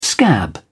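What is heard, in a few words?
"scab", English, British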